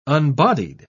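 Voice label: "unbodied", British English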